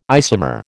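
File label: "isomer", American English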